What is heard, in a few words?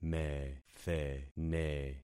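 "methene", concatenated syllables; Affixing experiment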